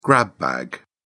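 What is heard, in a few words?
"grab bag", English, British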